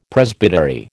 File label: "Presbytery", American